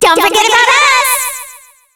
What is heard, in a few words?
Distortion Skill Duo; BBTAG